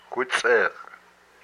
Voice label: "my belly button", hupa

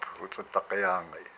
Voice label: "my hip", hupa

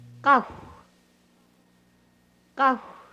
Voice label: yew wood, hupa

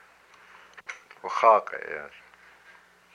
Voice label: "my body", hupa

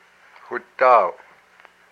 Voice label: "my beard", hupa

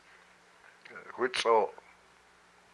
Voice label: "my breasts", hupa

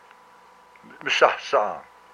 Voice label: "its gills", hupa

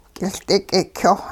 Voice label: large Red-headed woodpecker, hupa

